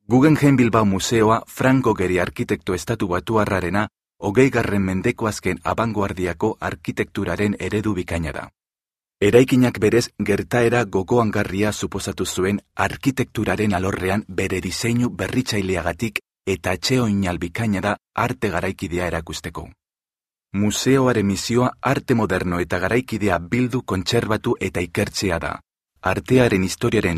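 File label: Basque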